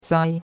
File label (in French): "sai1", chinois; Cours de Chinois